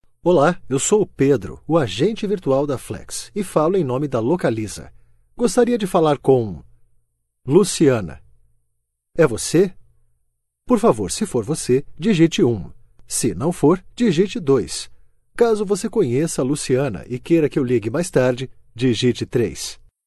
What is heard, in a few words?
Portuguese Brazilian IVR